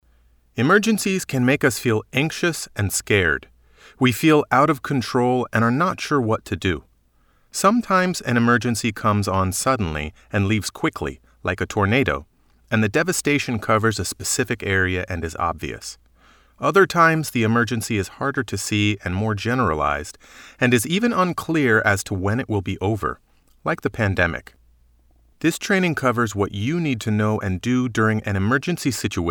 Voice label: 外籍男英 外语 美式英语

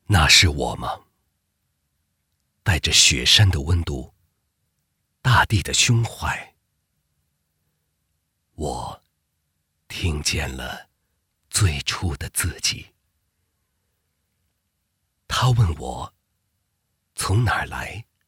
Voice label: 男国 其他 旁白 内心旁白; 金诃藏药

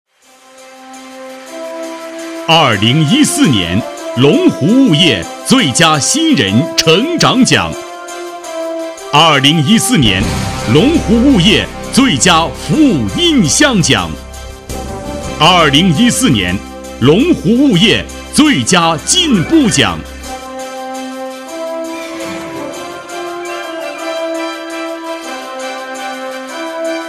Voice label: 专题, 颁奖, 物业颁奖, 大气; 龙湖物业颁奖

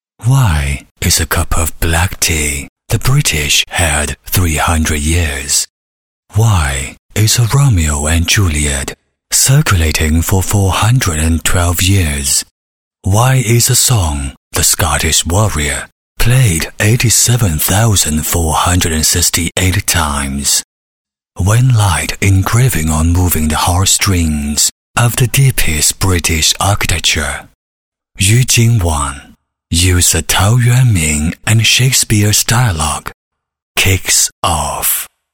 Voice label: 男英 外语 国内英语 高端深邃旁白

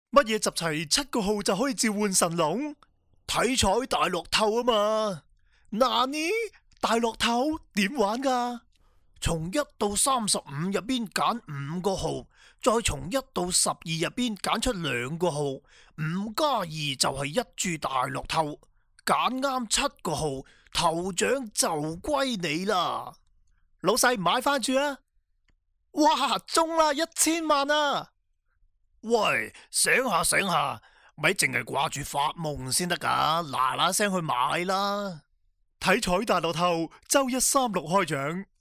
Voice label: 男粤 动画 角色 角色变声; 粤语

